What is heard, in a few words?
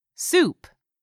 "soup", english; 3000 words